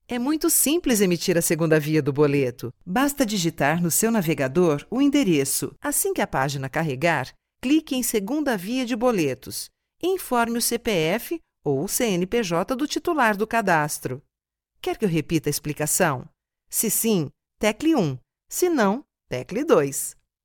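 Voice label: Brazilian Portuguese elearning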